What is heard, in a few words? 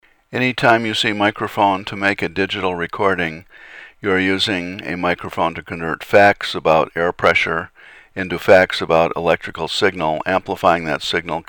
no noise red